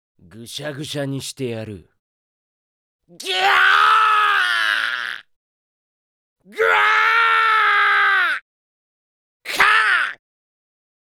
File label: character voice; D-XHIRD; SHADOW BOY